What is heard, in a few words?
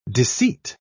"disseat", pronounce